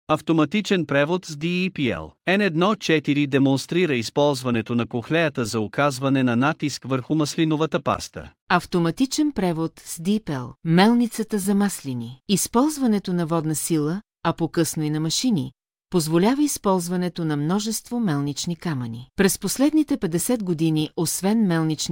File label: bulgarian; oliveoilmuseum